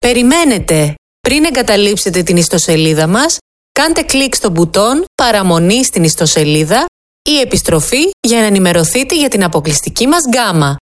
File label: IVR Greek Dry